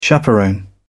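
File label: "chaperone", British English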